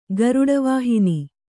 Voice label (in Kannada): "ಗರುಡವಾಹನ", Kannada